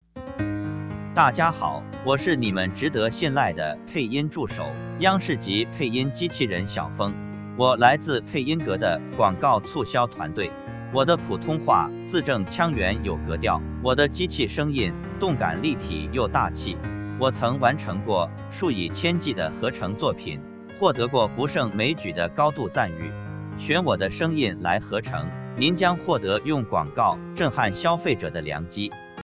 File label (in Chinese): 男 自我介绍